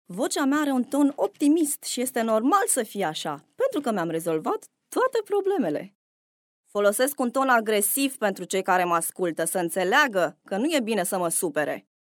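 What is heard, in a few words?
romanian female commercial; voice demos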